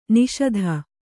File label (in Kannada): "ನಿಷೀಧಿ", kannada